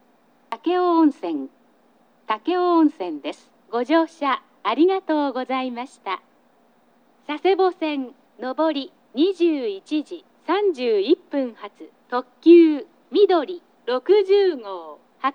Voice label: 到着放送 その2; takeo onsen

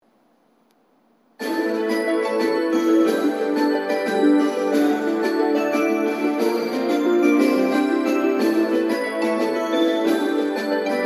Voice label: 新玉名駅 発車予告放送（女声）; 九州新幹線SIRIUS（シリウス）放送

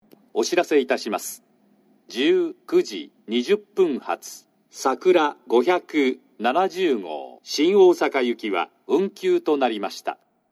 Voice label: 久留米駅 11番のりば運休放送（さくら570号 新大阪行き）; 九州新幹線SIRIUS（シリウス）放送

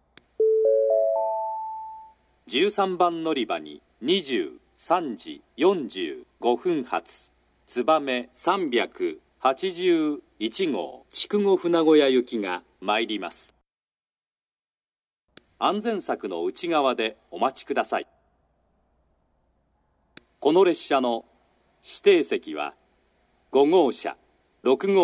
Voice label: 13番のりば予告放送, 新幹線ホーム; 新鳥栖; つばめ381号 筑後船小屋行き

